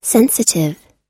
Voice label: "sensitive" us; 敏感な、感じやすい、傷つきやすい